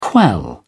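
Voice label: "quell", British English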